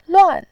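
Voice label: "luan", Mandarin, tone 4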